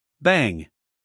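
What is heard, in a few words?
"bang", American English, male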